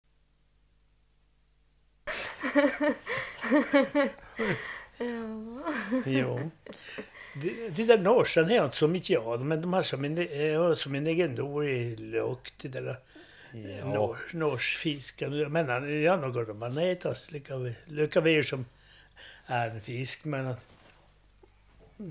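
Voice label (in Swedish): Neder Luleå Norrbotten, Svenska sagor, dialekter; Folkminnen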